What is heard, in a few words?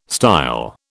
"style", British